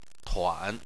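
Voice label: "tuan", Chinese, third tone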